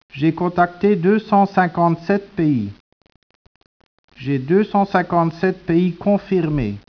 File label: speech, French